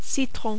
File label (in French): "citron", French